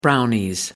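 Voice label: "brownies", British English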